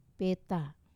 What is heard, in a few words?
"peta", map, Wamesa